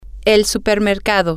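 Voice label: supermarket, Spanish, female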